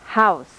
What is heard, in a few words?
vowel house